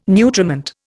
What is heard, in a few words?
"nutriment", Indian